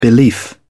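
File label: "belief", British English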